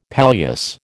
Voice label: "palliasse", American

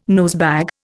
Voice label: "nosebag", Indian

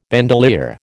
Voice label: "bandolier", American

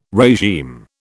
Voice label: "regime", British

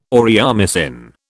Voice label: "aureomycin", British

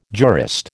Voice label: "jurist", American

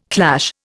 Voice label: "clash", Indian

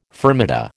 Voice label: "fermata", American

